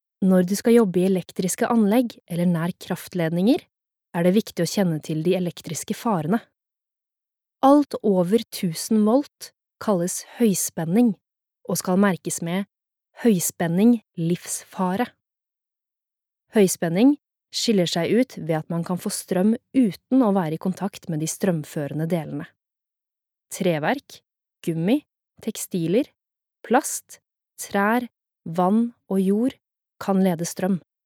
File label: no elearning, Norwegian; female